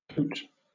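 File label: "cote", British English; pronunciations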